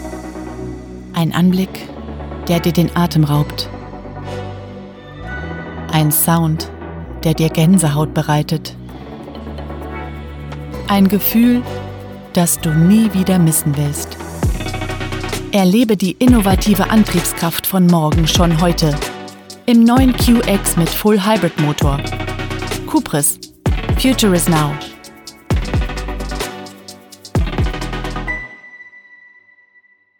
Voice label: TV-Spot, Commercial (Werbung), German; Cupris QX

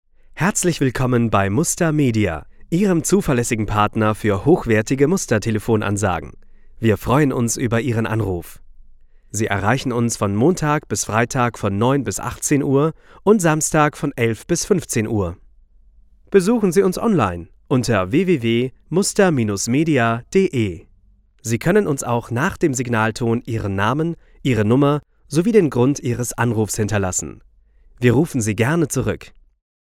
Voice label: Telefonansage DE EN

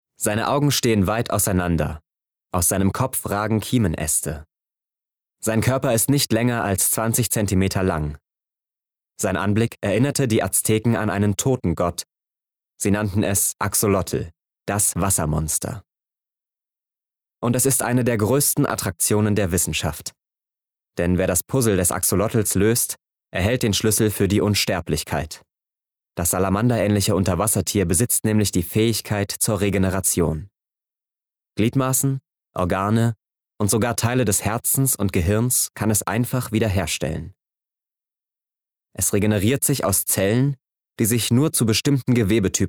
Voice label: Dokumentarischer Text; LOFT VOICES

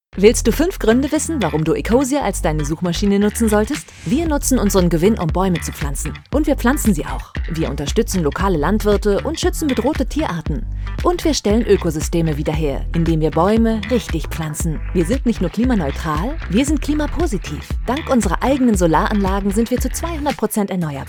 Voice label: Onlinespot; Ecosia; 5 Gruende

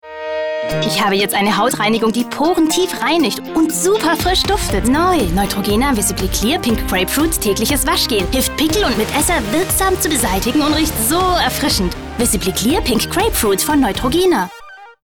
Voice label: Commercial (Werbung), hell, fein, zart, Jung (18-30), German, Schwäbisch; Germany

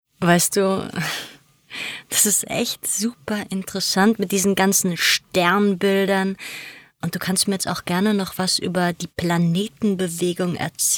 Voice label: Synchron Sexy; LOFT VOICES

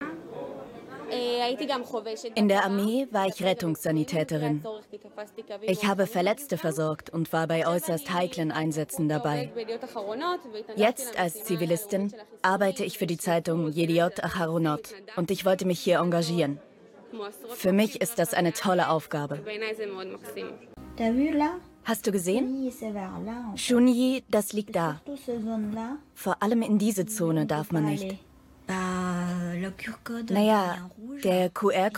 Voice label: Voiceover Reportage; Arte

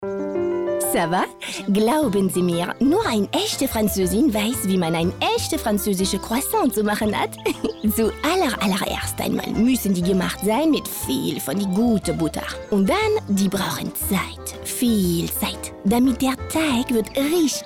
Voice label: dialekt

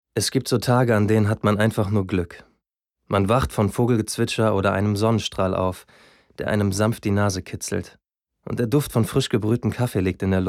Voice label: Monolog

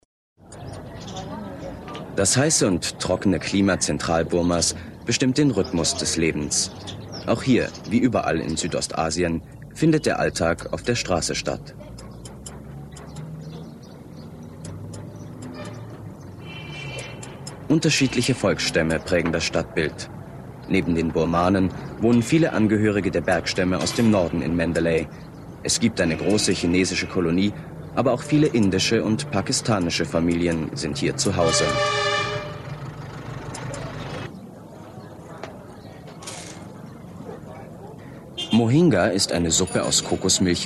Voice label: kommentar

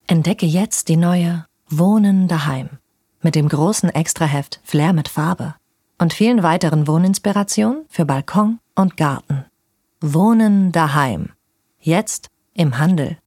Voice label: Werbung Wohnen

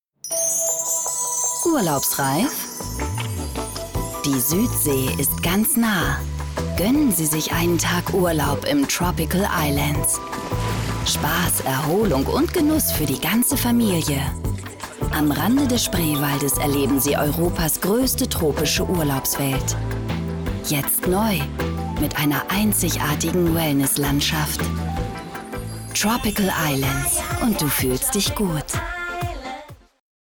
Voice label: TV Spot, Commercial (Werbung); TV Spot Tropical island

